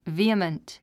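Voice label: "vehement", English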